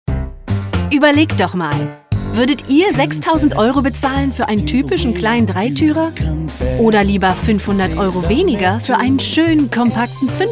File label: Commercial